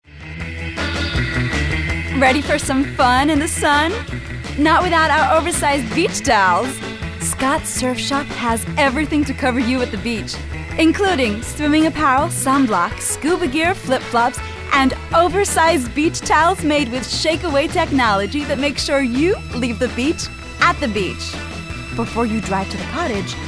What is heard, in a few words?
VO USA